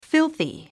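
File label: "filthy", English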